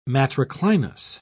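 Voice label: "matriclinous", British English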